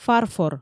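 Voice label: "фарфор" Komi, female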